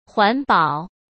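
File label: "环保", Mandarin Chinese; chinese flashcards; hsk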